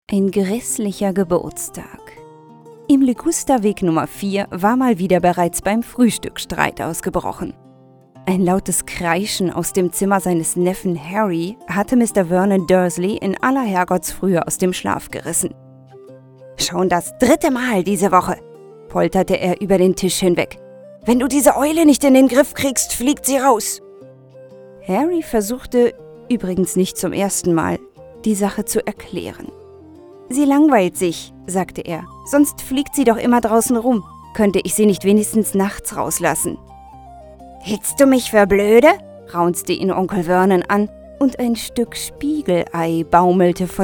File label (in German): Hoerbuch